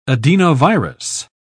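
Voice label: "adenovirus", British English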